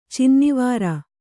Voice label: "ಚಿನ್ನವಾರ", kannada

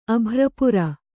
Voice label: "ಅಭ್ರಪುರ", Kannada

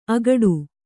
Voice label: "ಅಗಟು", Kannada

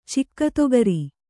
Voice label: "ಚಿಕ್ಕತೊಗರಿ", Kannada

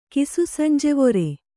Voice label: "ಕಿಸುಸಂಜೆವೊರೆ", kannada

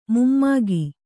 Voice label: "ಮೊಮ್ಮಗ", Kannada

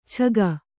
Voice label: "ಛಗ", Kannada

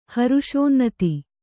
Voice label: "ಹರುಷೋನ್ನತಿ", Kannada

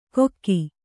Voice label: "ಕೊಕ್ಕಿ", kannada

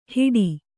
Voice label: "ಹಿಡಿ", Kannada; hiḍi

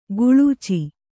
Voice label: "ಕೊಳಚೆ", Kannada

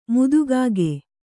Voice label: "ಮುದಿಕಾಗೆ", Kannada

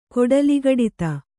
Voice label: "ಕೊಡಲಿಗಡಿತ", kannada

